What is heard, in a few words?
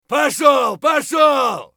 bear battlecry; eft